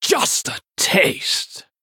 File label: Male Venthyr Attack